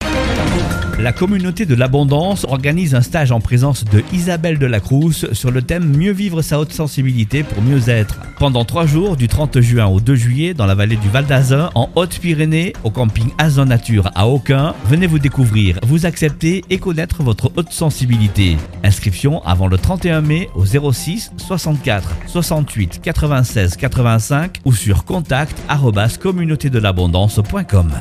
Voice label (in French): SPOT RADIO; CA NOSTALGIE HP